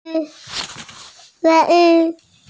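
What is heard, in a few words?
"wan2ju4", female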